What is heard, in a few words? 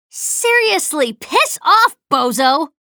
chatter negative; slash; respawn